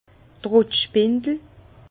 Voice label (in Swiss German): "trottspindel", Bas Rhin; vin; vis